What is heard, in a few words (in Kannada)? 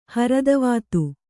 "ಹರದವಾತು", Kannada